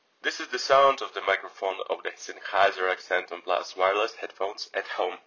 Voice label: sennheiser accentum plus wireless at home; Sennheiser Accentum Plus is a wireless full-size headphone and new for 2024.